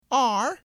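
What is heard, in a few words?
"R", English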